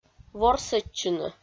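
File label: "ворсӧдчыны", Komi, female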